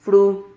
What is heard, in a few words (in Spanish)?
"fru", Silabas